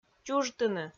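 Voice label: "чужтыны", Komi-Zyrian, female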